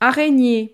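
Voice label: "araignee", French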